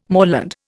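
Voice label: "moorland", Indian English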